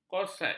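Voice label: "korsaj", Malay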